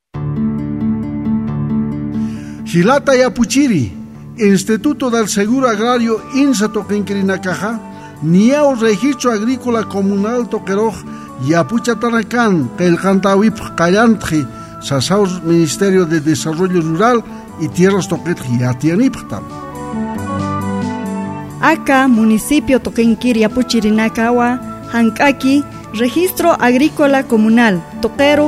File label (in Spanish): AYMARA LA PAZ